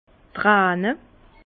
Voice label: "trane", Bas Rhin; larmes; vin

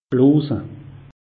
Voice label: "bloose", alsace; meteo

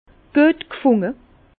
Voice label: "guetgfunde", Bas Rhin; intégré; vin

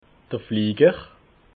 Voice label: "flieger", alsace

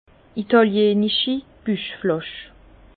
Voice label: "italianichi", Bas Rhin; vin; fiasque

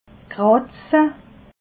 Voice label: "kratze", alsace

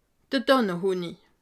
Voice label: "tanne", alsace

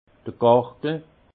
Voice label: "gargel", alsace; vin; jables

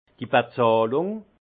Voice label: "bezahlung", alsace; vin; règlement

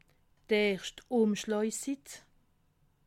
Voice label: "umschlaasitt", alsace

